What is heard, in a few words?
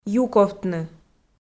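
"юковтны", Komi, female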